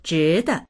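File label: "zhi2de", Mandarin Chinese; zhíde; 值得; 値打ちがある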